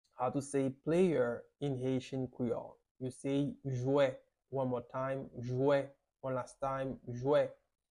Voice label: "Jwe" Haitian Creole pronunciation by a native Haitian teacher; How to say Player in Haitian Creole